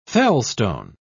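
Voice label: "felstone", British English, dictionary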